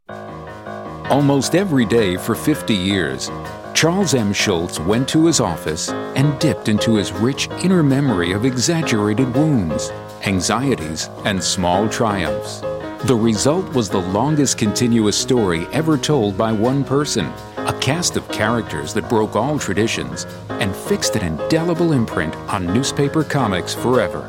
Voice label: narration; Demo